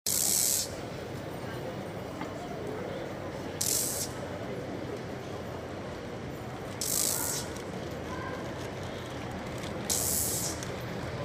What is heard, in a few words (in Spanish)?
vendedores singing magnets; corrientes y peatonal cordoba